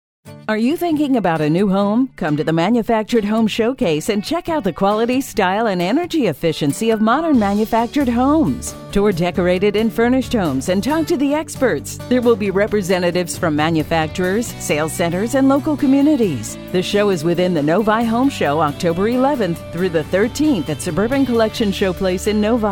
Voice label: radio spot; 2019 mmha home showcase